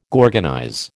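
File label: "gorgonize", American English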